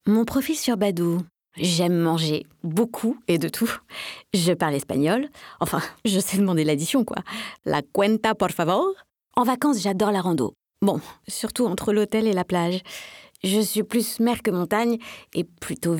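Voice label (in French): SPOTS RADIO FEMME, voix-off; MEDIAKEYS; BADOO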